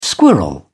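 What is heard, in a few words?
"squirrel", British English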